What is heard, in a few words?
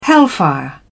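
"hellfire", British English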